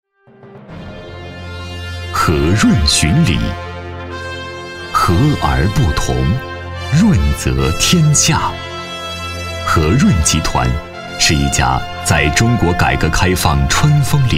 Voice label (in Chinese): 男; 巡礼